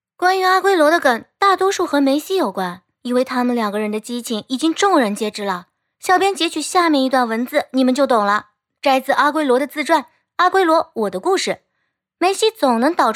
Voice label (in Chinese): 足球视频配音